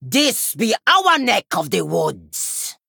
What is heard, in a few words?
Female Troll Play